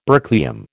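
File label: "berkelium", American English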